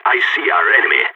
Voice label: radio enemy spotted, balkan; vo